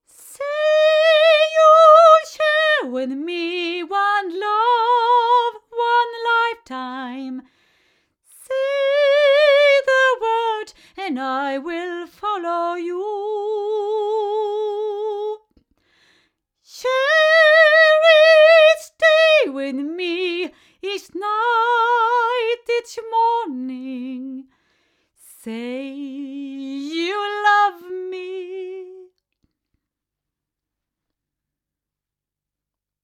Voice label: female; CT Proscenium; microphones; Crowley Tripp